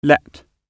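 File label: "let", British English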